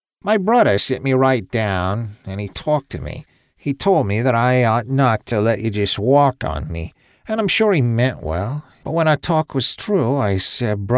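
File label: Game Character, American Male Voice; Bruddah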